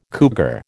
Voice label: "cougar", American